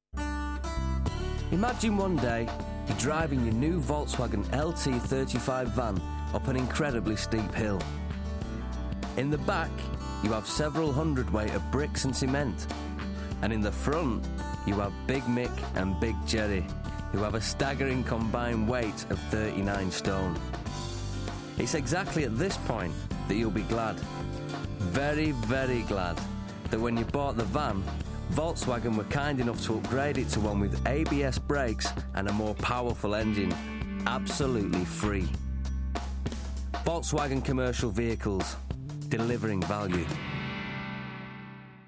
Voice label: Upbeat, Warm, Natural; Volkswagen